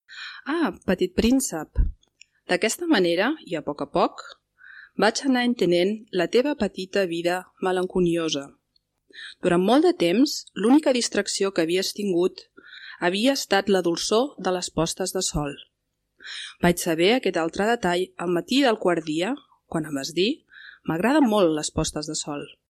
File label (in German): Katalanisch Barcelona